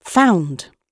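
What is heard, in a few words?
"found", British English; Oxford Audio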